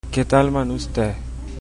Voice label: how are you, chabacano